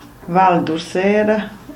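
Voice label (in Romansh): "Val d'Ursera", Romansh, Sursilvan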